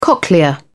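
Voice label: "cochlea", British English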